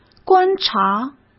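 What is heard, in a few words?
"观察", Mandarin Chinese; hsk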